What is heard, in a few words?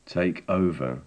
take over, english